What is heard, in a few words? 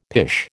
"pish", American